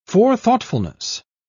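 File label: "forethoughtfulness", British English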